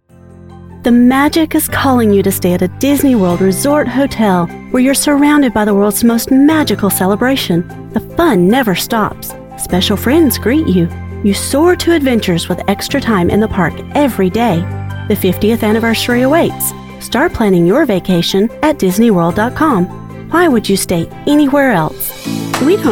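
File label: American English, General, Southern; GoLocalise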